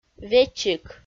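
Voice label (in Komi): "вечик", Komi, female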